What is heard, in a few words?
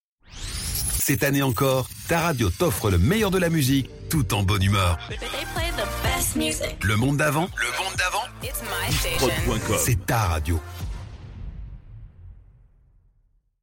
Jingle; Le monde davant